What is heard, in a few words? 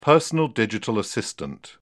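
"personal digital assistant", British English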